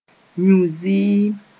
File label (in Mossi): "yũ-zɩɩm", moore